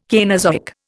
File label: "Cainozoic", Indian